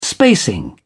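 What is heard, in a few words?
"spacing", English, British English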